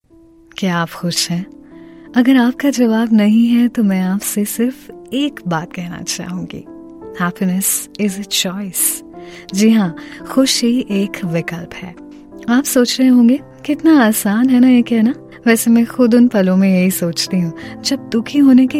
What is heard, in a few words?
Hindi Happiness, female; India Female